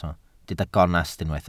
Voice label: "gonest", Welsh